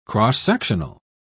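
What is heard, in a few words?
"cross-sectional", British English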